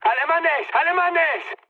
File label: enemy detected, mood high, speech; War Thunder